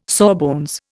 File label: "sawbones", Indian